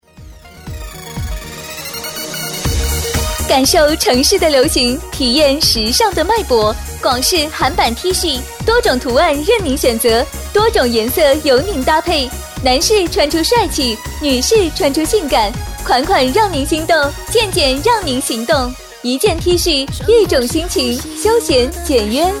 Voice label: 广告 促销 服装促销广告 甜美

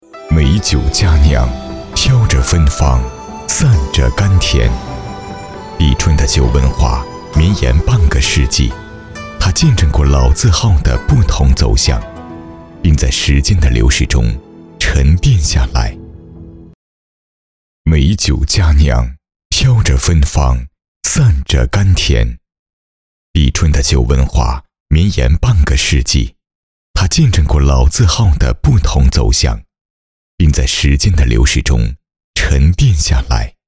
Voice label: 广告 酒类 酒类广告 浑厚, 男

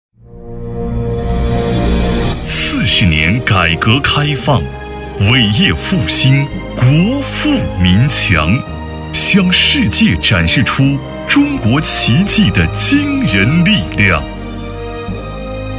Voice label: 专题 政府 力度; 创新谋发展改革铸辉煌

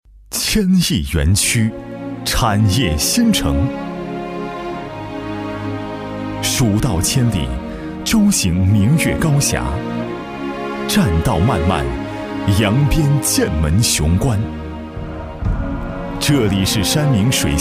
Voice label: 男 专题 浑厚; 千亿园区产业新城

